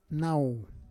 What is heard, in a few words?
"nauw", Roon